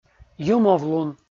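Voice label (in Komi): "юмовлун" Komi, male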